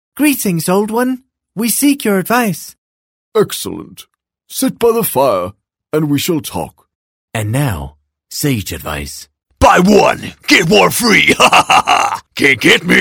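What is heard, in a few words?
Danish accented English; GoLocalise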